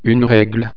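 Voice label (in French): "regle", French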